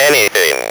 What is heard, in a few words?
"ANYTHING", Speak & Spell